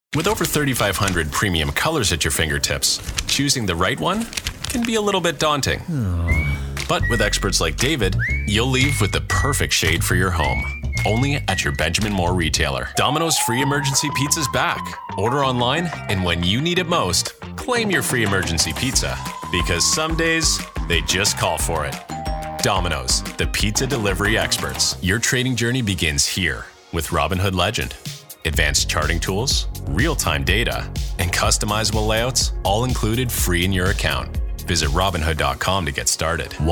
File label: Commercial VO